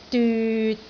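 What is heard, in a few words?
"dyut6", Cantonese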